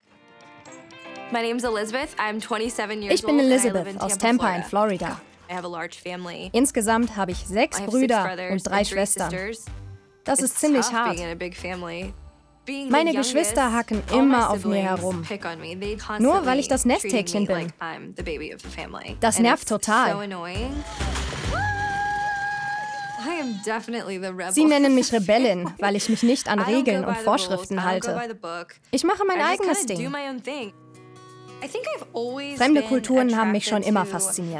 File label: Voice Over